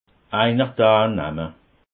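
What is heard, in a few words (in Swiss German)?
"daanamme", Alsatian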